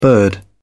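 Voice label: "bird", British English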